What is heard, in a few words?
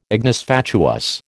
"ignis fatuus", American